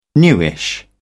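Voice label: "newish", British English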